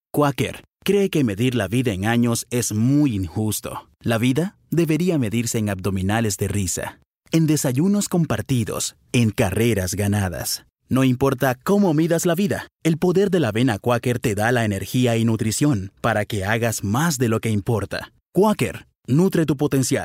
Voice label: Locutor costa rica

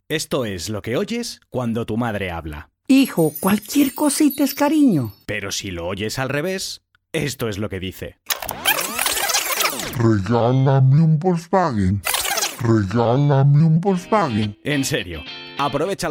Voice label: Locutor espanol

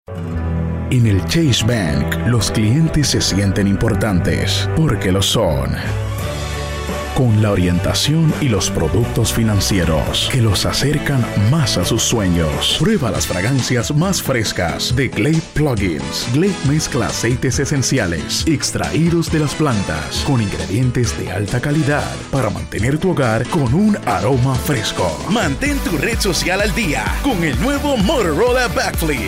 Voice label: Locutora puerto rico

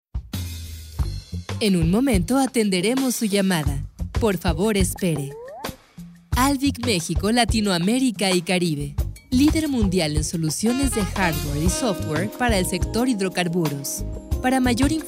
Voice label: mensaje en espera; ALVIC

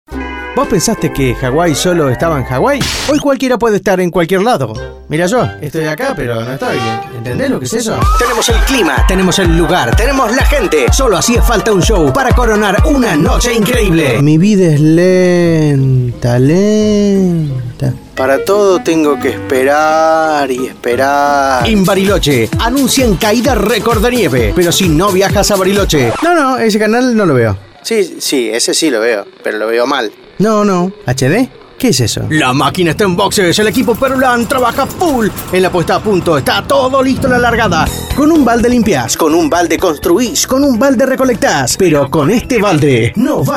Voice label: Locutor Argentino; argentina

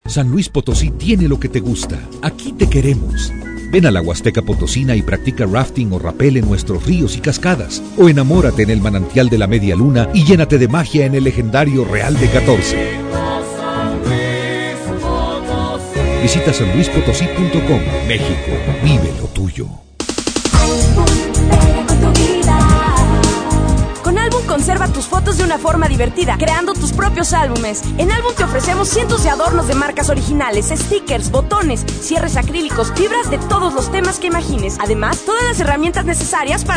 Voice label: Audio Comerciales Radio Jingles Spots locutores

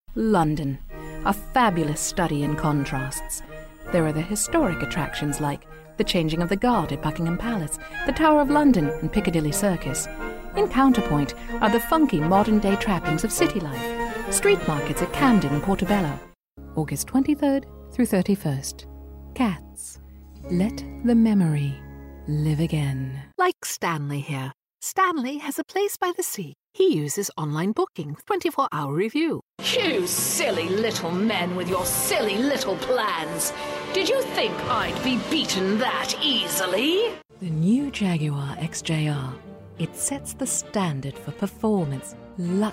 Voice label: locutora inglesa; inglaterra

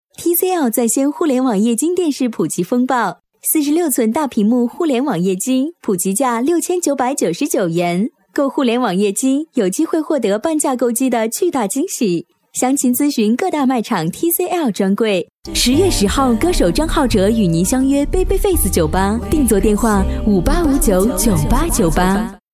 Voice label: locutora china

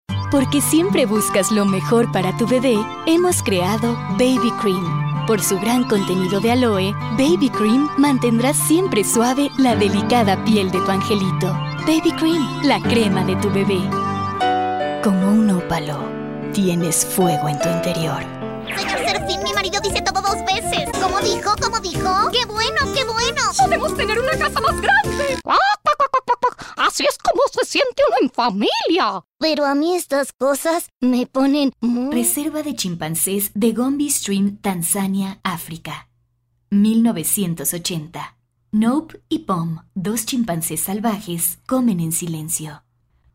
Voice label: Locutora mexicana

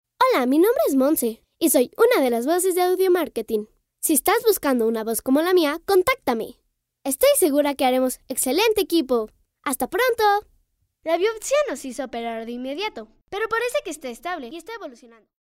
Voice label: locutora nina; infantiles

